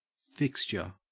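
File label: "fixture", Australian English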